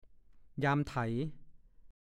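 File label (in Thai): "ยามไถ" ภาษาโคราช; หางยามไถเป็นส่วนที่คนไถนาจับขณะไถ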